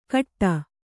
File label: "ಖಟ್ಟ", Kannada